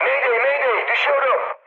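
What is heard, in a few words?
Turkish aircraft destroyed, mood high, speech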